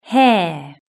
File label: "hair", british english